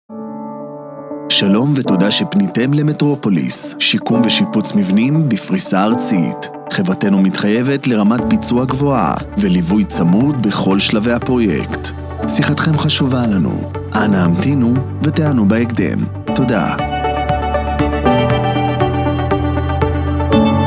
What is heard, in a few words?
male; metropolis